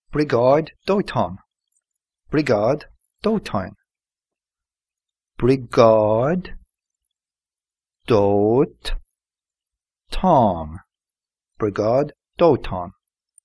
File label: firebrigade irish